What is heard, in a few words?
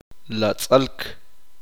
"estrecho", Uspanteko